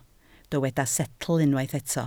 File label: "setl", Welsh